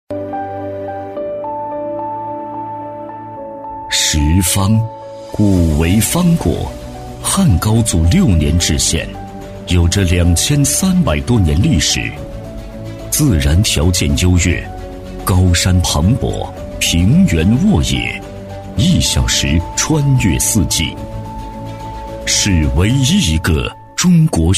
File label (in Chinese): 男 企业宣传片 大气厚重; 高端厚重; 大气浑厚; 德阳市智能化数字化园区